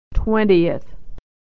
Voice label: "twentieth", pronunciation